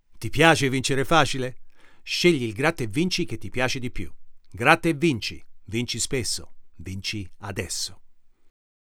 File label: Commercial Italian